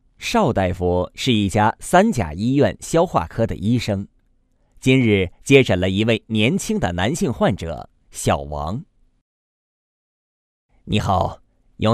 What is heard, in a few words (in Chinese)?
急性胰腺炎动画配音; 上海市人民医院